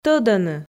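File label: "тӧдӧны", Komi, female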